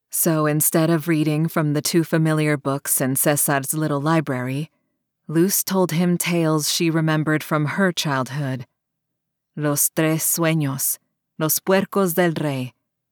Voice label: Thriller Spanish

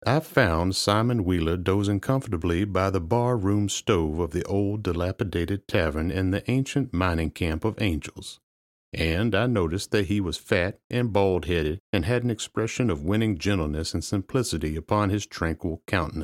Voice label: Narrations

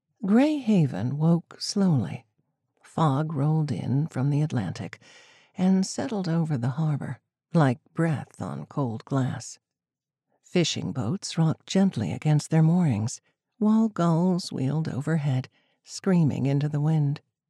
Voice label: Paranormal Thriller, MF Dialogue, Maine Accent

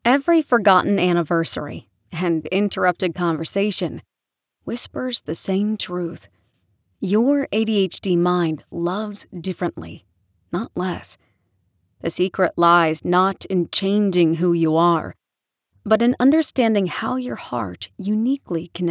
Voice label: NON FICTION, feminine voices

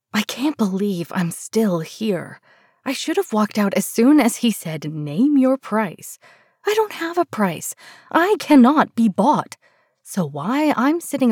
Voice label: First Person Rom Com; Feminine Voices of the Legion

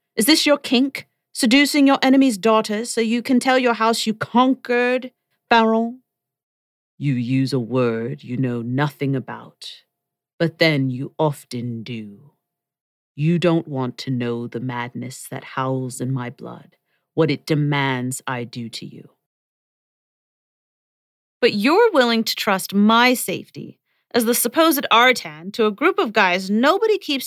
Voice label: audiobook, feminine voices; Feminine Voices of the Legion

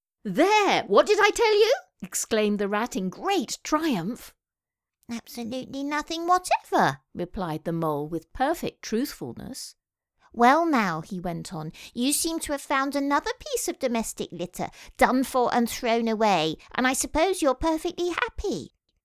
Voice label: Audiobooks VOICE OVER VOICE ACTOR NARRATOR

